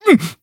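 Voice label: damaged, Japanese; joker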